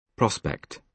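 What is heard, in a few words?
"prospect", British English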